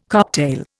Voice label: "cocktail", Indian